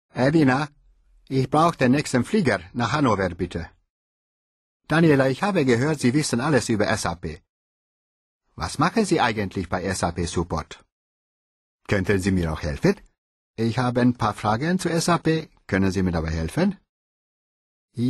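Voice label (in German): deutsch ungarischer akzent, maenner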